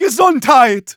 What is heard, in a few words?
Medic battle cry, de